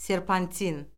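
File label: "serpantin", Azerbaijani; Orfoqrafiya Lüğəti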